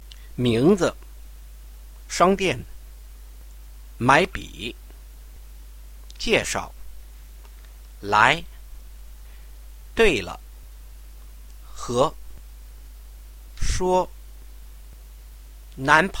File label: Vocab, Reading pinyin